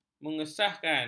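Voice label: "mengesahkan", Malay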